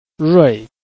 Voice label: "rui4", Chinese

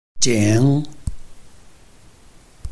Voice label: "jing3", Chinese

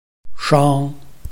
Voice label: "shang1", Chinese

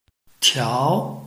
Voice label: "tiao2", Chinese

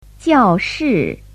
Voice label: "jiao4 shi4", Chinese

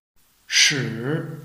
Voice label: "shi3", Chinese

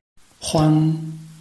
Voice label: "huan1", Chinese

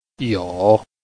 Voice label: "you3", Chinese

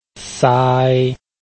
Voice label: "sai1", Chinese

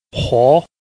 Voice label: "huo2", Chinese

